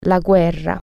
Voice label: war, italian